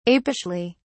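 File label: "apishly", British English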